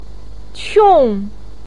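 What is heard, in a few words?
"qiong", Mandarin Chinese fourth tone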